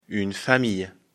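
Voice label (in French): "famille", French; afrikalan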